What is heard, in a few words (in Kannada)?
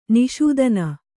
"ನಿಷೂದನ", Kannada